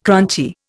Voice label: "crunchy", Indian